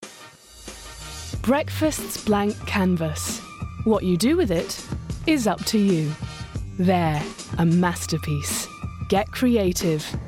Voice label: Commercial